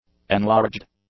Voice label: "enlarged", English; adjectives

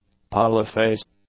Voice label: "polyphase", English; Adjective; adjectives

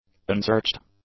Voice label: "unsearched", english; adjectives

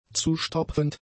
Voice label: "zustopfend", German; adjectives

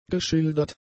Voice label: "geschildert", German; adjectives

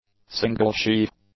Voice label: "single-sheave", English; adjectives

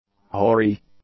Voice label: "hoary", English; adjectives

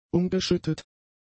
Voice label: "umgeschüttet", German; adjectives

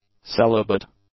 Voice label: "celibate", English; adjectives

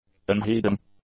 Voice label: "unheeding", english; adjectives

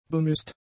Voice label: "bemisst", German; adjectives

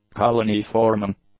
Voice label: "colony-forming", English; adjectives

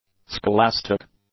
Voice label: "scholastic", English; adjectives

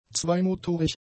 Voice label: "zweimotorig", German; adjectives

